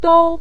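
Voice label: "dou" Mandarin Chinese, neutral tone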